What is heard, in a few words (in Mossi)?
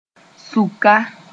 "sũka", Moore; articulation